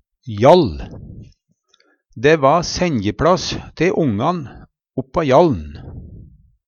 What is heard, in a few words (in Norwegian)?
"jall", Numedalsmål; hjall